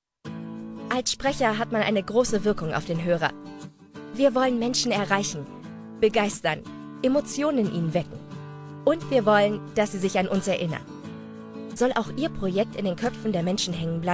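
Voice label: German, female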